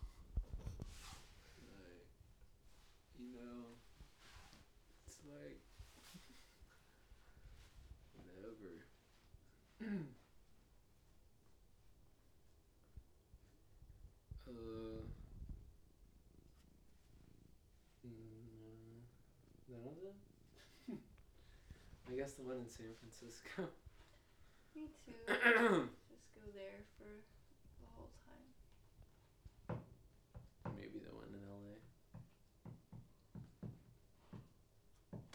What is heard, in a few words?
show most excited, talking